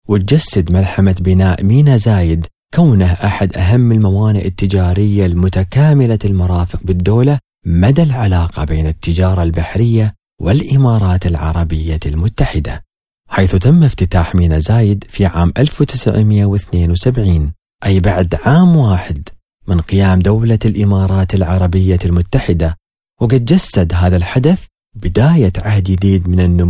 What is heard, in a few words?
Corporate Video, Emarati; Khalifa Port